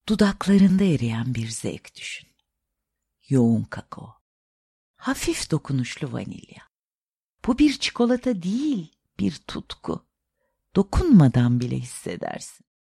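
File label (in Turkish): cikolata deep toned, Türkçe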